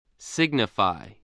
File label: "signify", spelling